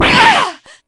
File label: shock; p4u; mitsuru